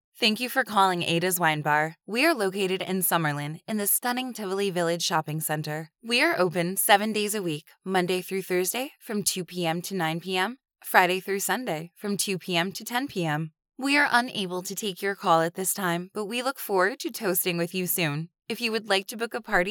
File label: Telephony